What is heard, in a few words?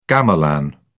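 "gamelan", English, British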